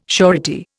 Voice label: "surety", Indian

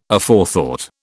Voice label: "Aforethought", British

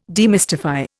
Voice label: "demystify", Indian

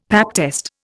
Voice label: "pap test", Indian; Dictionary

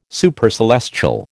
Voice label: "Supercelestial", American

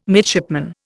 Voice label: "midshipman", Indian

